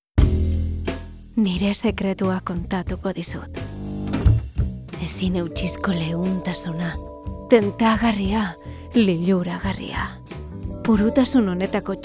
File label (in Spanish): Publi Euskera